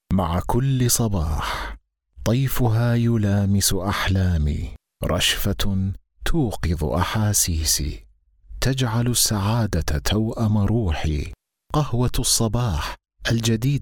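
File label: Arabic, Saudi Arabia, male, voice over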